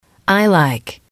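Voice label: "I like", English, female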